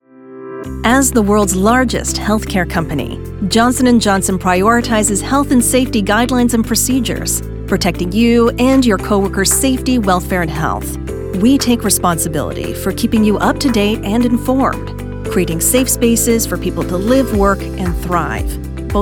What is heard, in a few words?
female english canadian neutral north american accent; johnson and johnson corpo